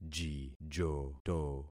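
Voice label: "jijoto", concatenated syllables; Affixing experiment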